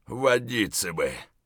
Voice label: dehydrated; Escape from Tarkov